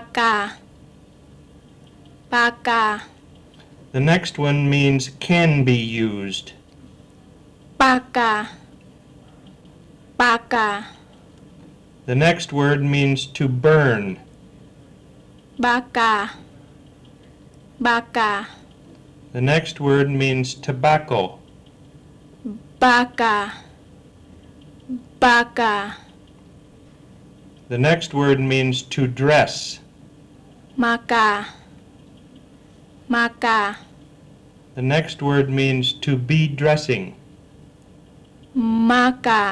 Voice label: Pattani Malay Long and short cons contrast